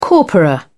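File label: "corpora", British English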